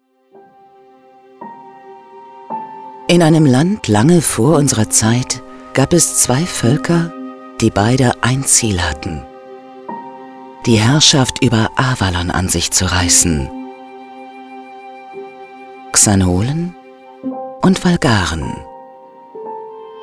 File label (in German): Erzähler; Game